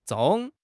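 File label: "zhong4", Chinese; 汉语口语新时代-初级下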